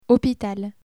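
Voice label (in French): "hôpital", French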